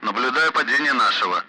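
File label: Speech, Russian; Allied Flight; member down; DCS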